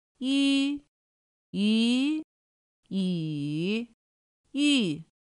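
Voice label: ǖ ǘ ǚ ǜ, Mandarin Chinese pinyin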